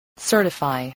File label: "certify", English, voice